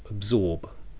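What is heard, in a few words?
"absorb", New Zealand, male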